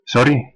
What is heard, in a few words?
sorry, west flemish